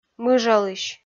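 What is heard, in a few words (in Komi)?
"мыжалысь", Komi, female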